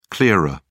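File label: "clearer", English, uk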